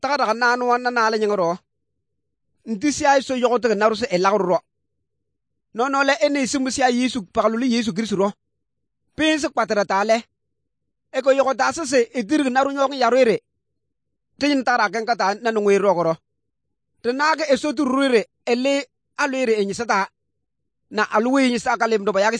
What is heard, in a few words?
good news, kabiye bible